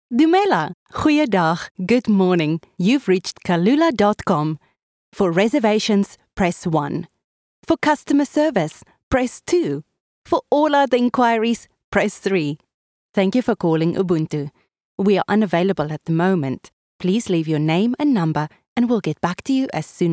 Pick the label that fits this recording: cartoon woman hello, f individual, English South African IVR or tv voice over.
English South African IVR